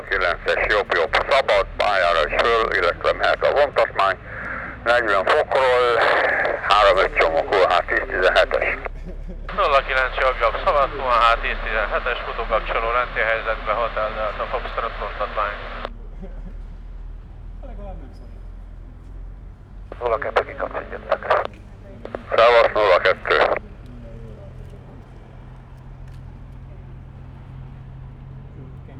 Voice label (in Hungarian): repülési adatcsere budaörsi repter; adóvevő